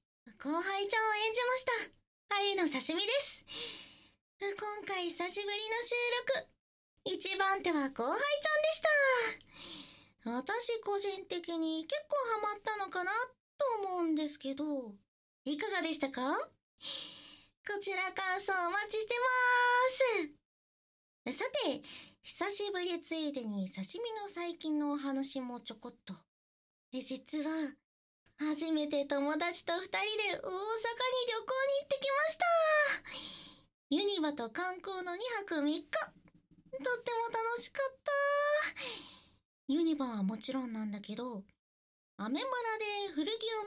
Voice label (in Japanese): フリートーク, ASMR; 超甘やかし系女子!あなたを溺愛している女の子が心も体も溶かします!〜会社の後輩ちゃんと甘々セックス〜